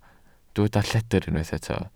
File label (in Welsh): "lledr", Welsh